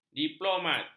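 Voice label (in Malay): "diplomat", Malay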